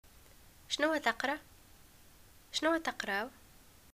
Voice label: what do u study, arabic